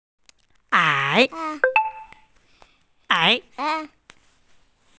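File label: "ai3", Mandarin Chinese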